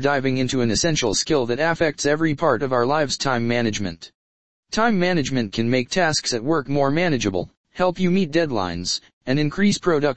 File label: narration, podcast; Worldwide Access Podcast; Hello everyone, and welcome to the Worldwide Access Podcast, your go-to space for insights, stories, and conversations that connect people across the globe.